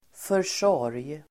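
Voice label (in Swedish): "försorg", Swedish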